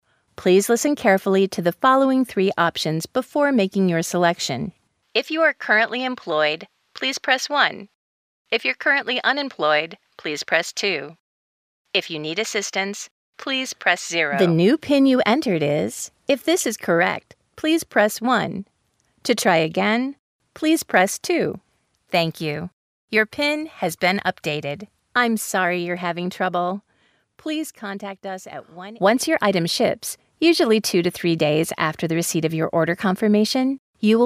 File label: IVR